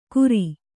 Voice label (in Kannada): "ಕುರಿ", kannada